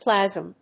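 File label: "plasm", English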